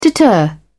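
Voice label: "deter", British English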